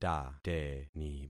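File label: "tateni", concatenated syllables; Affixing experiment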